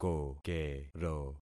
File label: "kokero", concat syllables; Affixing experiment